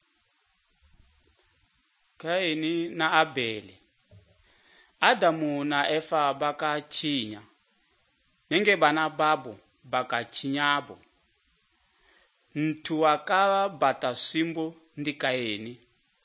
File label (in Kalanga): good news kalanga lilima, drama